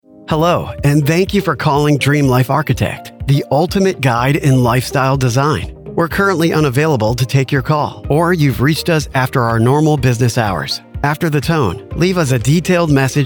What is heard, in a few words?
IVR